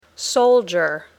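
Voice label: "soldier", English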